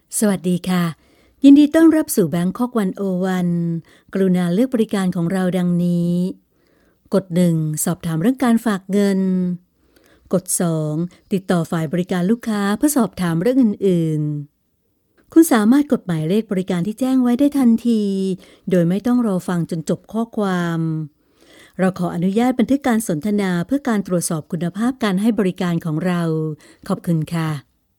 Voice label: Thai narration